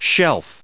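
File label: "shelf", US English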